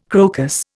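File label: "crocus", Indian English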